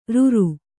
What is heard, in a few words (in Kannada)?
"ರುರು", Kannada